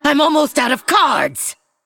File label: Female Forsaken Low Cards